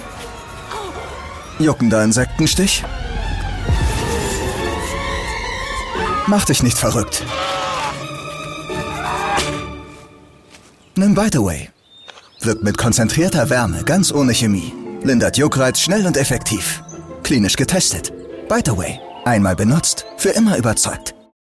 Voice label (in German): Werbung Spot; Bite Away